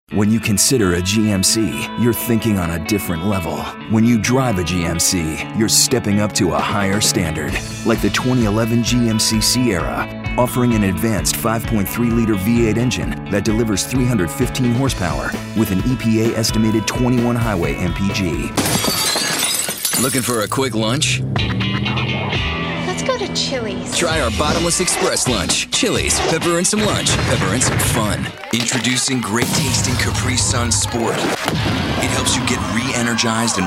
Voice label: Commercial